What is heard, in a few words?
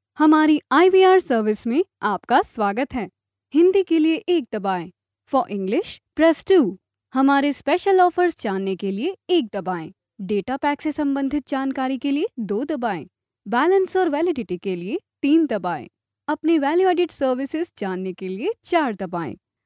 female hindi voice over narrative